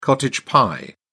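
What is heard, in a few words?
"cottage pie", British English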